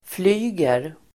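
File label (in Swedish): "flyger", Swedish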